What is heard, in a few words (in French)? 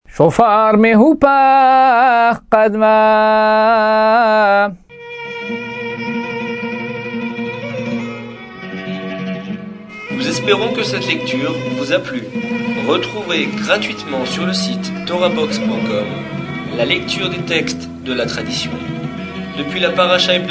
apprentissage taamim selon le rite constantinois